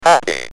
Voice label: "Happy", UK Speech